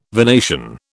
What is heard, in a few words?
"venation", British